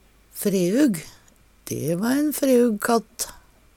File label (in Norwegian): "freug", Numedalsmål; Dæ va ein freug katt.